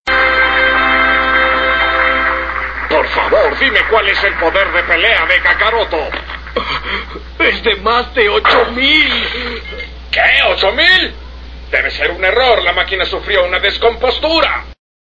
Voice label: its over 9000, es de mas de 8000 version espanol latino